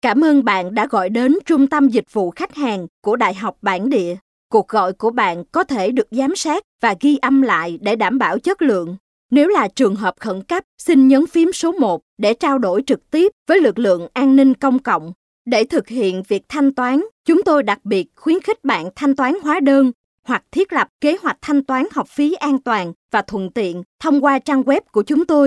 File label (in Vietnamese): Vietnamese; multi-language